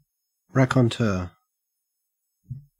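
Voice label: "raconteur", Australian English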